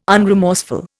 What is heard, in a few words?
"unremorseful", Indian